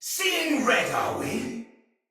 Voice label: See Player Shout, Battle; Thawtide Hunter; en